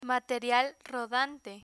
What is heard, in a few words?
"material rodante", Spanish; learn spanish